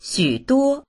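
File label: "xu3duo1", Mandarin Chinese; 许多; たくさんの; dictionary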